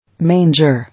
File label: "Manger", English